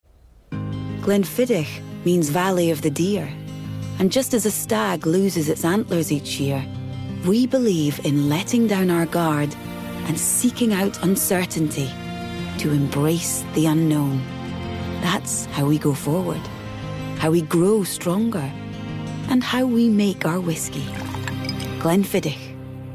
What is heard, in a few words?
Commercial; Glenfiddich